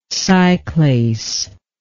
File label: "cyclase", english